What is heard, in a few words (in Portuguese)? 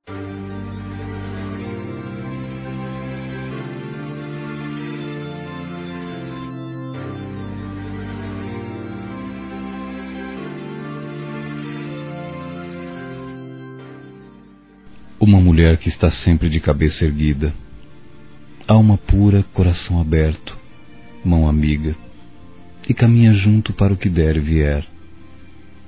Dia das Mães Geral Masculino; Telemensagens 2017